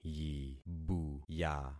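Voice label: "yibuya", concatenated syllables; Affixing experiment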